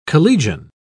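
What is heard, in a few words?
"collegian", British English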